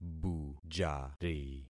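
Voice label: "bujari", concatenated syllables; Affixing experiment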